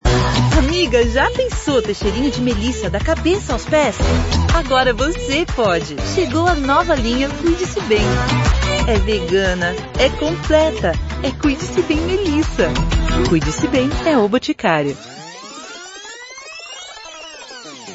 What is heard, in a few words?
portuguese brazilian commercial; portuguese brazilian voice talent